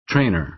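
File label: "trainer", American English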